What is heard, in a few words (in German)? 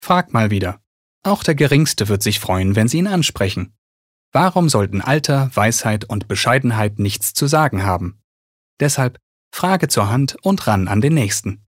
Werbung, Freundlich